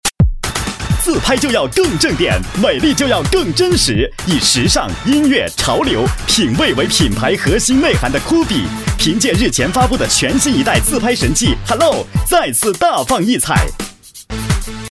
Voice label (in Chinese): 男 电购卖场